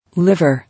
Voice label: "Liver", English